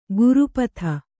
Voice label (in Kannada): "ಕುರೂಪತೆ", Kannada